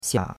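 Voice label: "xia3", Mandarin Chinese; Cours-de-Chinois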